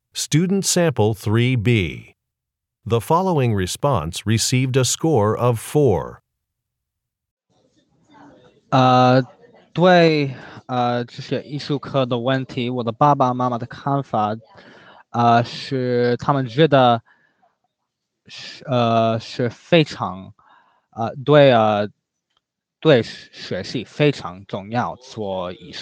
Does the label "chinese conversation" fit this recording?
Yes